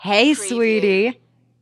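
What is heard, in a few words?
Woman Says Hey Sweetie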